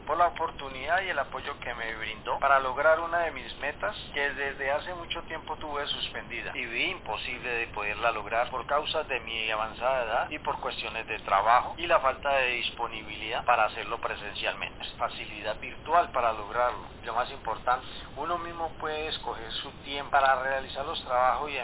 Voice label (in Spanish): Hombre mayor; Bachiller; Testimonios de algunos de nuestros egresados